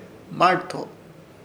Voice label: "Hmarto" anillo, Ishir Chamacoco

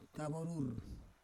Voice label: "kavorur", Roon

